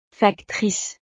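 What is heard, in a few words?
"factrice", French; profesiones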